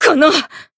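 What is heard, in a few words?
Voice damaged Japanese; hinagiku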